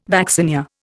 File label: "vaccinia", Indian